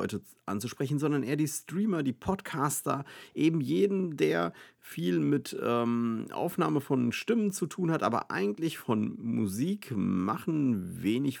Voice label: elgato wave 3 office

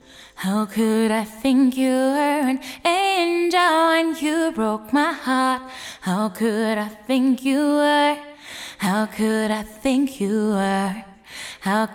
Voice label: vocals; exponential audio phoenix verb

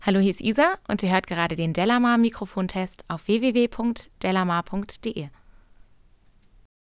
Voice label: speech f, mxl v69m

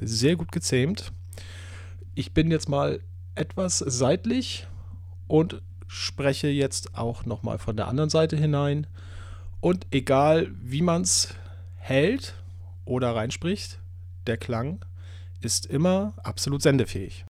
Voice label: rode podmic hd test usb pur

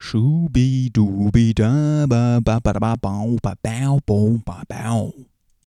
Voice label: Roland VT-3 bass dry

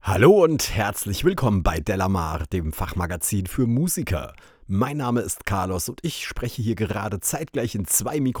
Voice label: rode nt1 signature test vgl m930

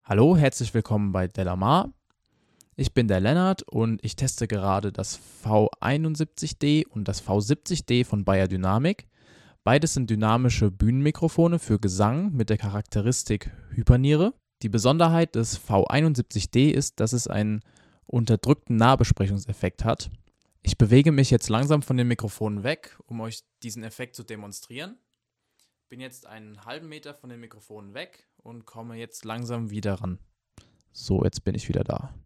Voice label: beyerdynamic tg-v70d; v71

